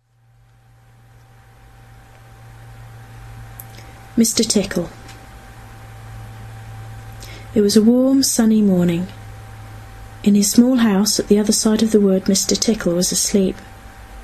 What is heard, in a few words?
evolving English near Totton New Forest female; mr tickle